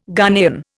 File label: "Ghanaian", Indian